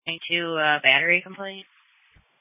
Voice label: Sheriff Dispatch